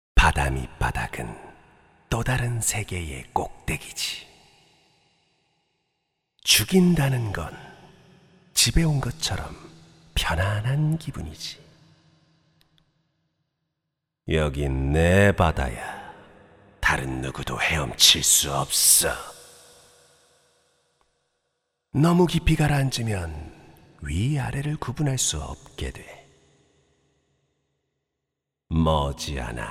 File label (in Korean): 음산한 유령선장 어두운 사악한 30대